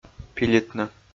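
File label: "пилитны", Komi, male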